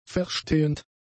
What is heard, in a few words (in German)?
"verstehend", German; adjectives